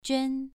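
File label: "juan", Mandarin Chinese, second tone, pronunciation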